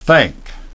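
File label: "thank", English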